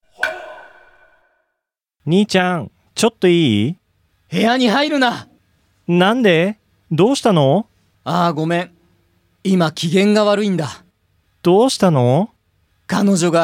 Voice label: dialog; JapanesePod101; Beginner Season 1